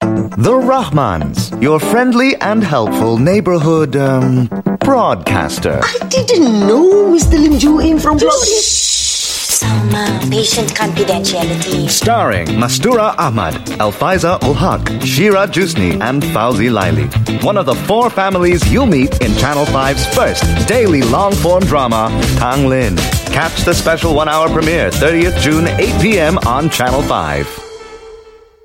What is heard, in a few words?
Asian English; Tanglin; The Rahmans